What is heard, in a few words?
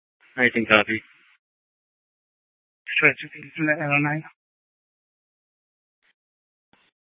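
police dispatch, scanner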